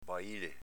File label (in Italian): "baile", dialetto veneto